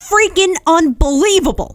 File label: scout jeers, femscout